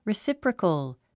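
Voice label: "reciprocal", English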